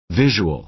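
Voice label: "visual", American English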